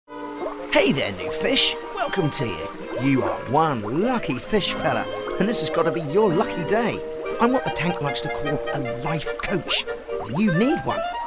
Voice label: Animation